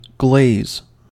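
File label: "glaze", American English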